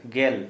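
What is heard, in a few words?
ten, Santali, male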